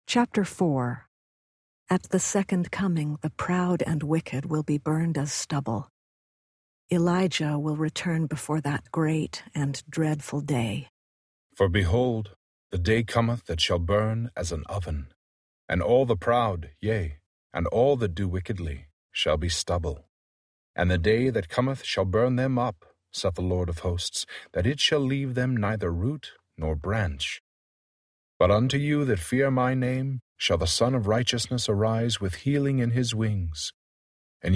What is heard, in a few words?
malachi male voice; malachi 04; the old testament